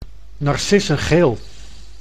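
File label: "narcissengeel", Dutch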